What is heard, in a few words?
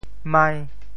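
"mai5", Teochew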